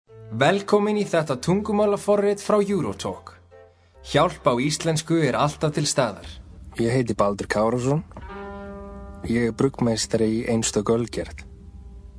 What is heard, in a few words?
Icelandic, M, Explainer